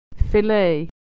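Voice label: "fillet", American English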